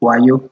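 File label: "wayo", Nigerian Pidgin, male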